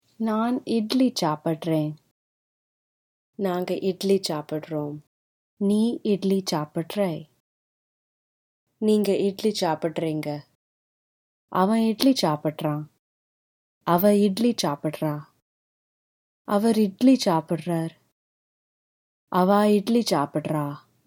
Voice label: Eat Idli, Tamil informal audio lessons